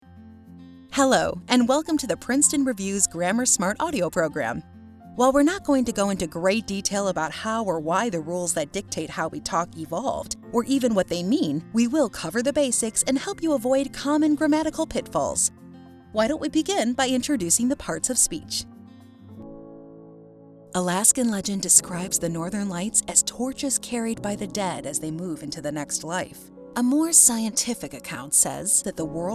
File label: eLearning Narration